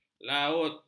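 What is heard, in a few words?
"laut", Malay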